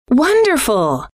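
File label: "wonderful", female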